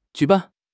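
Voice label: "ju3 ban4", Mandarin Chinese; HSK4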